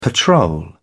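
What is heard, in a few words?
"patrol", British English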